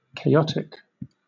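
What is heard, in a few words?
"chaotic", British English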